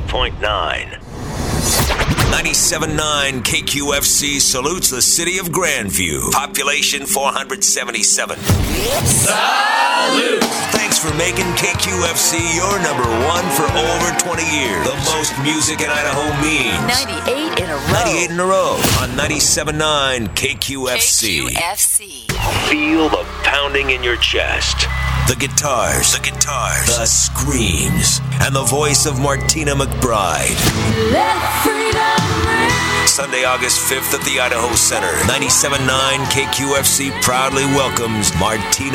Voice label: radio male country

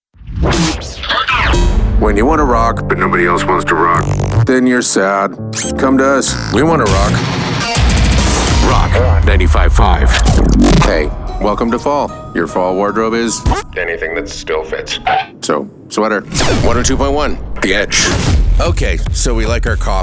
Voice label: radio imaging men

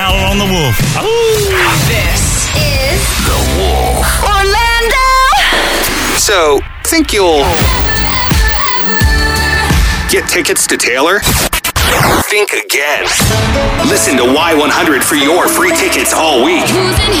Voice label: radio imaging men hot country

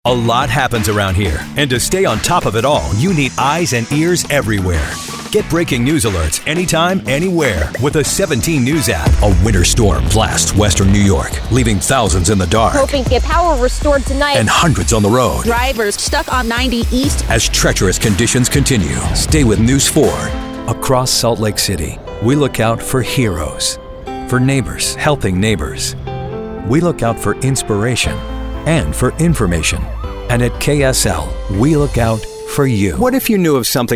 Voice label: tv affiliate men

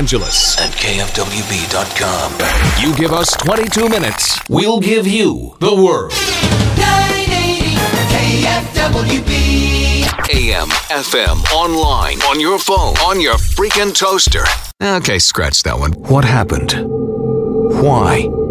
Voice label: radio imaging news talk

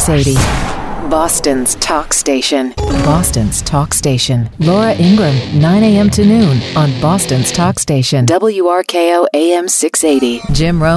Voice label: newstalk, radio imaging

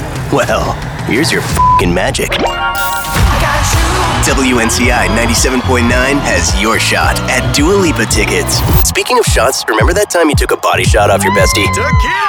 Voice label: radio imaging men multi format

